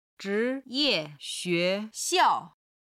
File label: "zhi2 ye4 xue2 xiao4", chinese